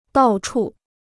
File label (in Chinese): "到处", Chinese